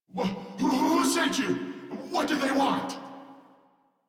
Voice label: Downed, en; Warframe; Corpus VIP B